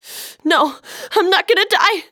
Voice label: Panic; zps